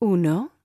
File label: Spanish Number